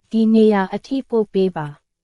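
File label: Myanmar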